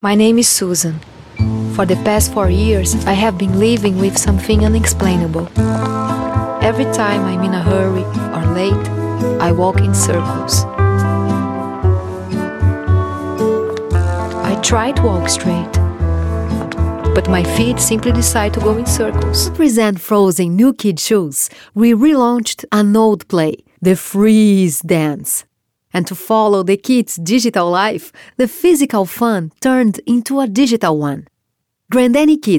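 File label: Brazilian Portuguese, female, accented English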